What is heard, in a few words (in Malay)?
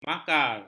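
"makar", Malay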